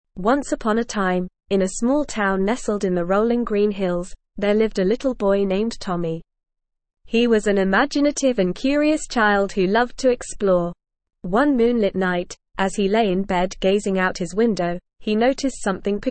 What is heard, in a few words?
ESL Short Stories for Kids Advanced NORMAL Reading The Midnight Train to Starland